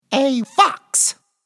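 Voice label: "fox", English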